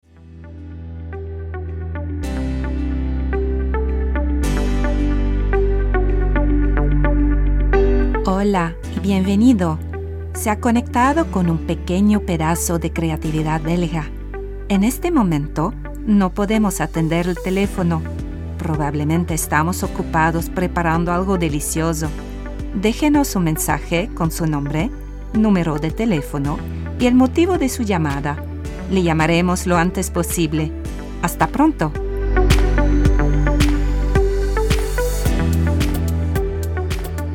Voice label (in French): avec musique